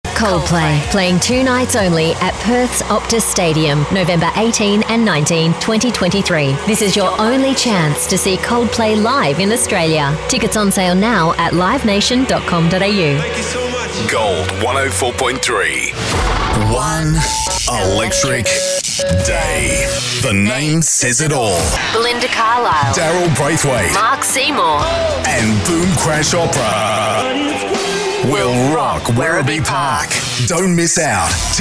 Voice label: Radio Imaging Australian English